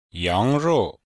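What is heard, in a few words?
"yang2 rou4", Mandarin Chinese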